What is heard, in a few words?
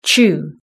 "chew", british english